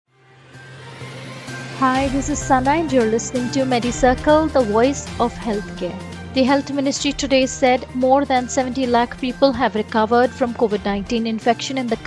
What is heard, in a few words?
english news